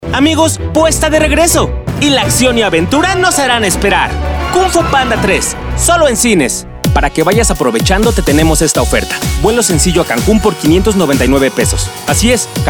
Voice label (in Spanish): comercial; voiceover demo